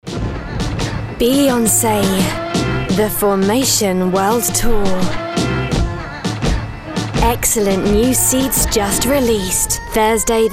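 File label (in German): EngUK W Sanft, female, British English, soft; Fremdtake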